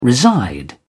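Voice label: "reside", British English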